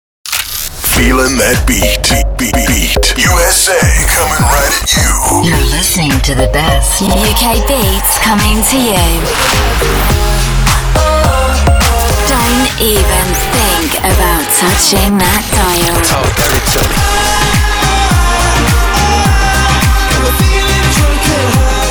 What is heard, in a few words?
Promo British Voice Over UK